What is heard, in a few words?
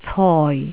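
"coi4", Cantonese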